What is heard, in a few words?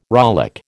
"rollick", American